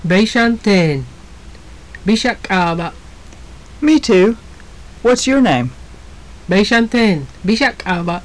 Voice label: conversation, maya; Beyxan teen. Bix a k'aaba'?; Me, too. What's your name?; JUNP'IIT MAYA